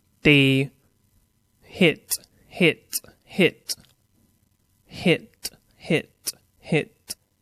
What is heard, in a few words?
"hit", English; Verb